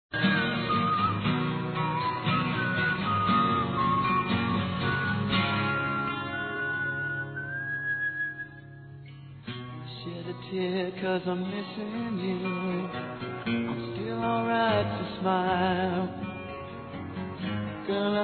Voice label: ANIV. ROMANTICO F

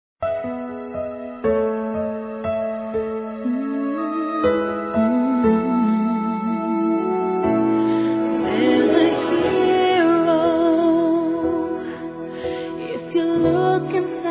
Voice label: AGRADECIMENTO A FILHA, masc; Telemensagens; Convites e Agradecimentos; Telemensagens 2017

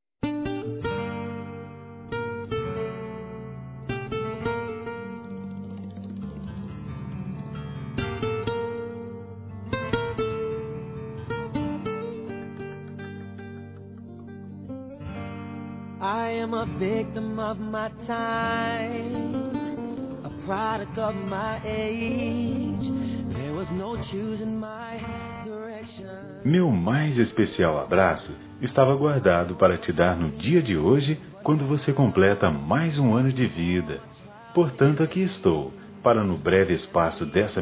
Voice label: Aniversário Avô Masculino

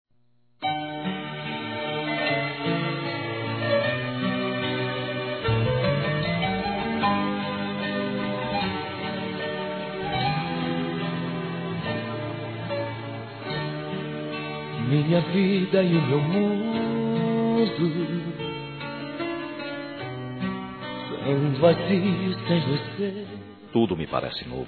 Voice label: Aniversário Sertanejo Declaração Amor Masculino; ANIVERSARIO romantico

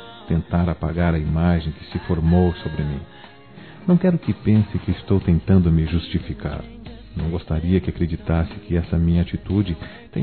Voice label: NUNCA TIVE CULPA M, masc; Telemensagens; Telemensagens 2017

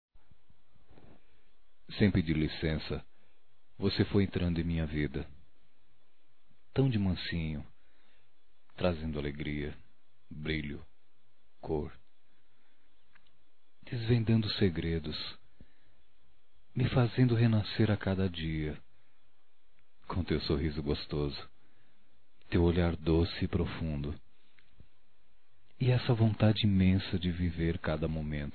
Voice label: Romantica Poesia Masculino, Telemensagens; Românticas

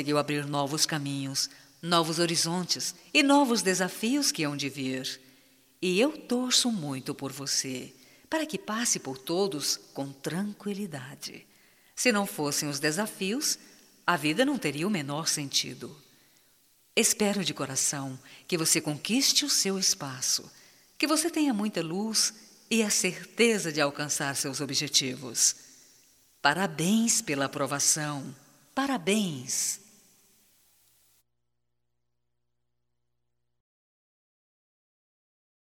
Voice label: VESTIBULAR - F, Só Voz; fem; Telemensagens 2017

